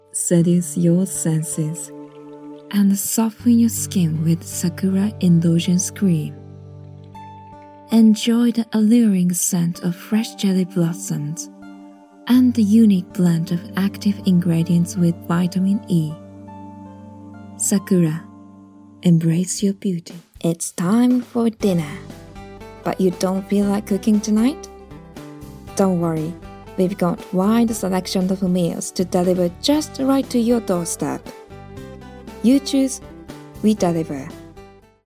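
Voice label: Japanese English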